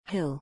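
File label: "hill", English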